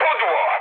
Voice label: bomb failure mood low, speech pol; War Thunder